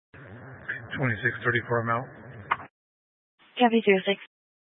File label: Sheriff Dispatch, scanner; Mesa County